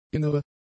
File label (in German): "innere", German; adjectives